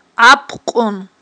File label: "Iапкьун", aghul